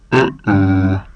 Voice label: uh oh